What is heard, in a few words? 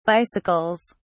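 "bicycles", British English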